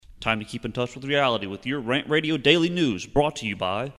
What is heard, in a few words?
Vocal Intro; RantRadio Show Demos